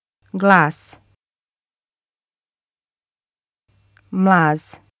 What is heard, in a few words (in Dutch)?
"glas", bosnisch